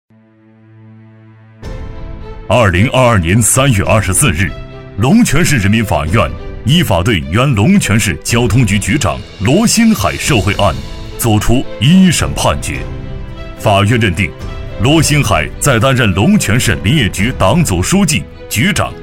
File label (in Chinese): 男 警示片专题 严肃正气; 龙泉纪委; 男201-厚重力度